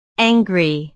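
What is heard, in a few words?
"angry", English; Enojado, enojada; ˈæŋɡri; estados animo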